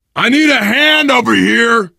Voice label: help, player survivor voice biker; source engine; left 4 dead 2